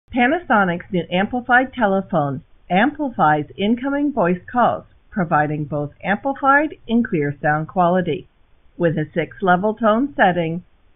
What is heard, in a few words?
Amplified Phones KX-TGM4xx Amplified